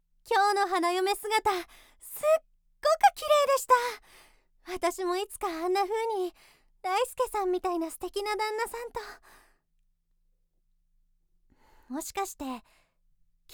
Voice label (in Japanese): 女性 成人